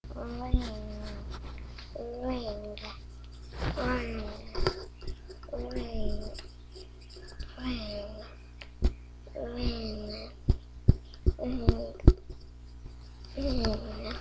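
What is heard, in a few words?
"ring", spoken word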